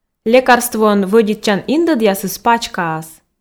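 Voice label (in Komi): лекарствоӧн вӧдитчан индӧдъясыс пачкаас, Komi, female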